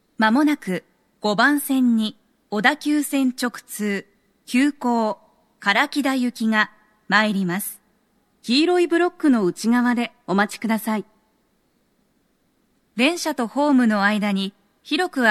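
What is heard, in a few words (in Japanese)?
5番線 接近放送 女声, 大手町駅; 放送は「急行 唐木田行」です。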